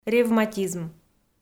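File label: "ревматизм", Komi, female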